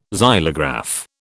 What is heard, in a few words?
"xylograph", British